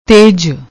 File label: "tej", Punjabi; ਤੇਜ